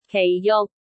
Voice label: "kei4 juk6", cantonese